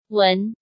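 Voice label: "Wén", Chinese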